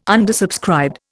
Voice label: "undersubscribed", Indian